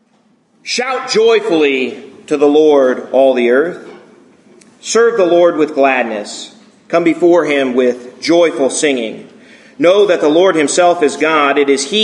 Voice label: sermon; sunam; A Joyful Noise